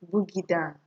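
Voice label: "boogidan", Nigerian Pidgin, female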